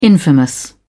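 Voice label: "infamous", British English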